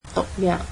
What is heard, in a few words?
"poison", Cantonese